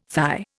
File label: "thigh", Indian